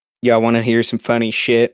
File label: voice message; Discord; Outcast Gaming; general chat